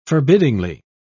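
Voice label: "forbiddingly", en, uk British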